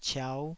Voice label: "ciau5", taiwanese